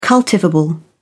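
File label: "cultivable", British English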